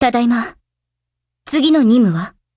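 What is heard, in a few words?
OPERATION OVER Japanese, Voice; GF Alarm; AN94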